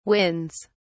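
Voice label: "wins", British English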